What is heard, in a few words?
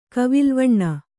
"ಕವಿಲ್ವಣ್ಣ", Kannada